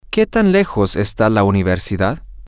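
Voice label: Spanish, male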